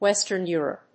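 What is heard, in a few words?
"Western Europe", English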